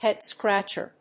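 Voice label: "headscratcher", English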